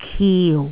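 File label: "kiu4", Jyutping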